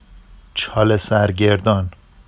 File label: “ʧɑlesarɟerdɑn”, Vafsi; چالِ‌سَرگِردان